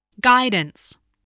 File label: "guidance", spelling